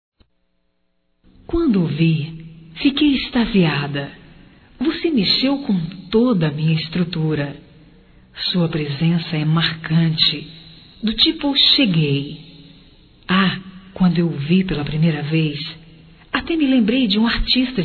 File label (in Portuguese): Feminino; Amor a Primeira Vista Feminino